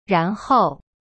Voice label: "然后" ran hou, chinese

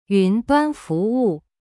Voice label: "yun2duan1fu2wu4", Chinese; cyberchinese

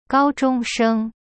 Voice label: "高中生", Chinese; gao zhong sheng; cyberchinese

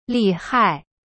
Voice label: "厉害", Chinese

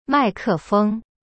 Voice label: "mai4ke4feng1", Chinese; cyberchinese

